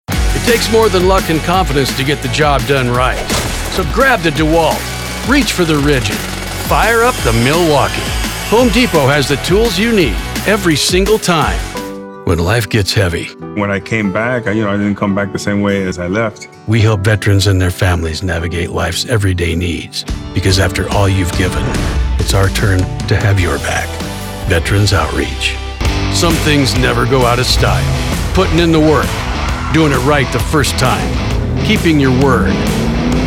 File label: Commercials